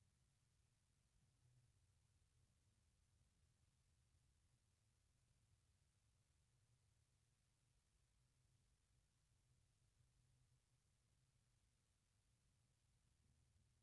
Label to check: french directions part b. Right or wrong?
Right